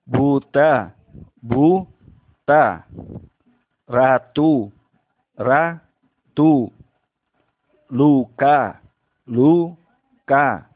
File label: How to pronounce vowel U in Indonesian